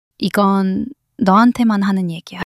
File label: shy